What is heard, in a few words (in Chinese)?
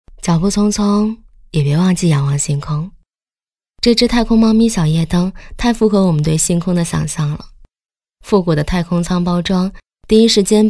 女-产品自媒体自然口述; 女B15-【产品自媒体自然口述】大英博物馆猫咪举明灯